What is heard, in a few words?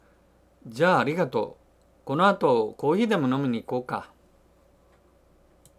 dialogue line, japanese